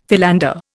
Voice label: "philander", Indian; Dictionary